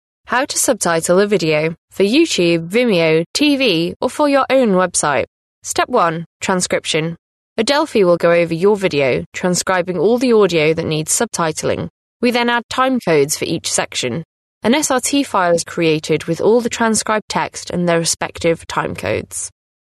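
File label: female; Adelphi Production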